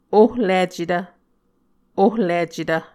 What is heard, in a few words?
"ohlicetv", Muscogee